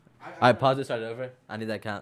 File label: recordin Mic Input; flstudio; Vocals